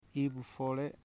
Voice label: "river", Foe